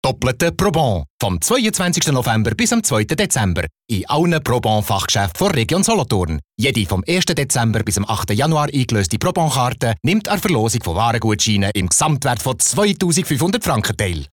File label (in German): Werbung CH BE; flippig frech verspielt